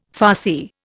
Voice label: "Farsi", Indian

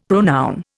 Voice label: "pronoun", Indian; Dictionary

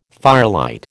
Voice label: "firelight", American

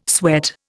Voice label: "sweat", Indian

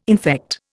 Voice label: "infect", Indian

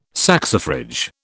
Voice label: "saxifrage", British

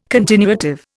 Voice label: "continuative", Indian

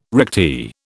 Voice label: "recti", British

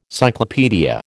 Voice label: "cyclopaedia", American

